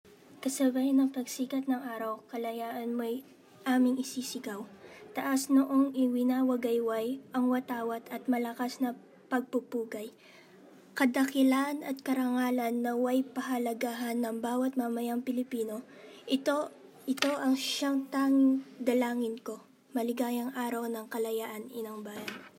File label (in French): tagalog